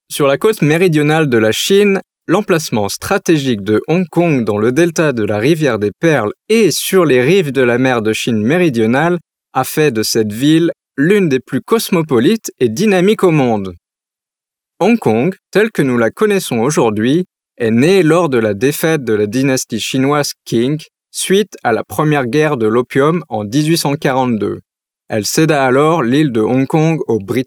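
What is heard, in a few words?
French male corporate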